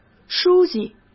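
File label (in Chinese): "书记", Mandarin Chinese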